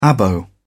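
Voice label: "abo", British English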